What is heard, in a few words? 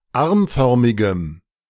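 German "armförmigem"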